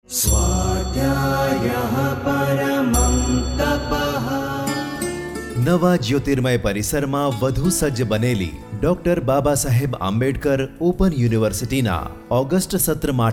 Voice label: Gujarati Corporate Advt